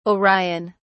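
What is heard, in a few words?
"orion", British English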